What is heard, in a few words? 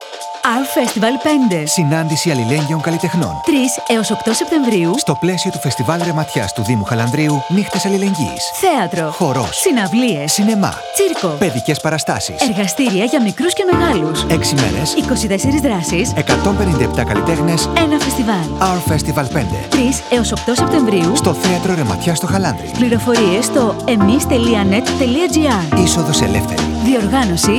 Radio spot; Our Festival 5